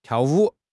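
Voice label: "tiao4 wu3", Chinese; HSK2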